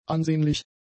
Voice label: "ansehnlich", German; adjectives